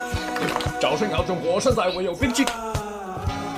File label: fast chinese